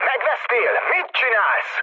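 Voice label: Hungarian player killed ally mood med, speech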